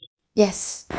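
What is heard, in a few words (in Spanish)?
Voice Acting, Spanish, female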